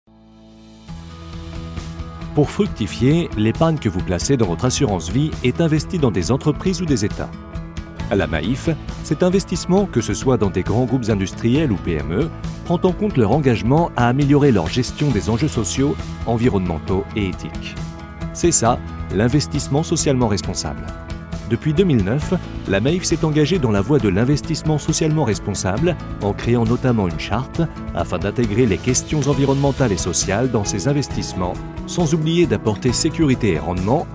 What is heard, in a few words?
corporate; maif; French voice-over